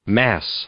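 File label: "mass", English, male; noun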